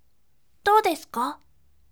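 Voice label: voice, bright; doudesuka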